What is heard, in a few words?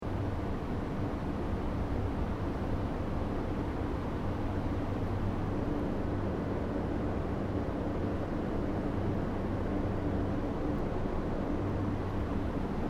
Ambience Tokyo Shinkansen Platform Announcements, Tokyo train station for bullet trains; Train Station Sound Effects